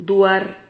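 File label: "duar", Spanish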